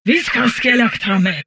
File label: humanoid portrait female; Stellaris; humanoids